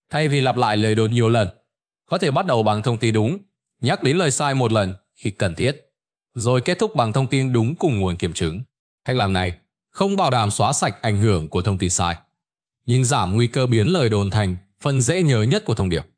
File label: narration; Vi Sao Ban De Tin Mot Dieu Khi Nghe No Lap Lai Nhieu Lan; StickerMan